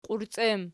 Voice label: "qiurciem", Archi